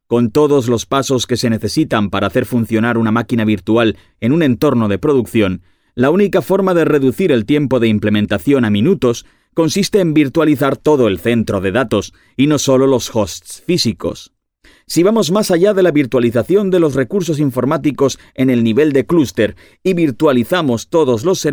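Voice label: Spanish, male, e-learning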